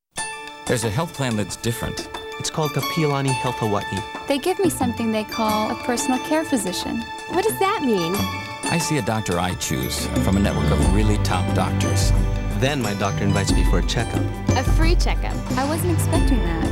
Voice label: TV Spot Voiceover; Kapiolani Health Hawaii